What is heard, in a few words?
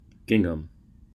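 "gingham", American English; pronunciations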